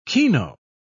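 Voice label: "kino", British English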